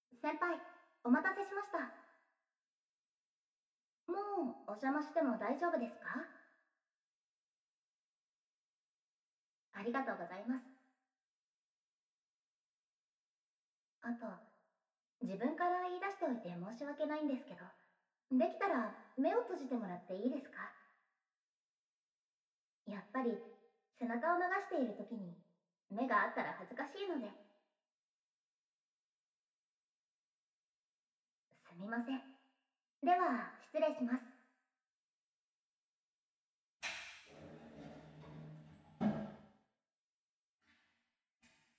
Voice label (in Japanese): お背中流します（SE BGM無し）